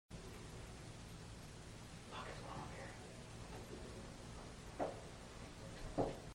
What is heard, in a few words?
mans voice hallway